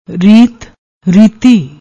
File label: "reet", Punjabi